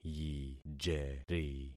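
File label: "yijeri", concatenated syllables; Affixing experiment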